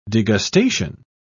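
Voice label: "degustation", British English, dictionary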